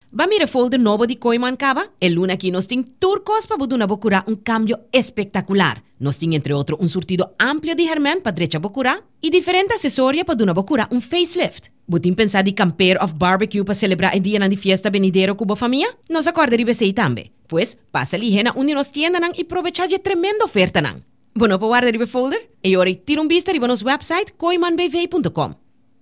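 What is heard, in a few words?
Papiamento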